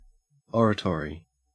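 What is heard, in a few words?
"oratory", Australian English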